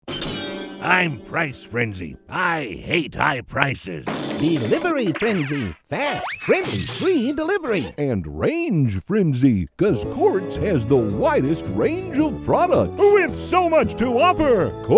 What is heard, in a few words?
characters, voice over actor